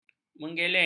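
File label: "menggeleng", Malay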